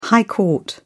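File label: "high court", English, British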